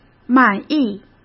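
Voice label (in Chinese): "满意" Mandarin Chinese; hsk; chinese-flashcards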